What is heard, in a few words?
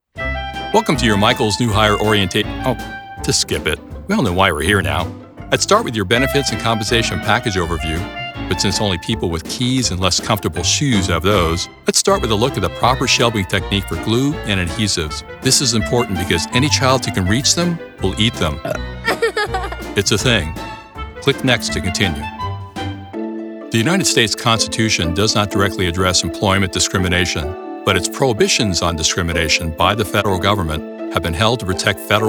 Voice Actor eLearning